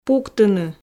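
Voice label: "пуктыны", Komi, female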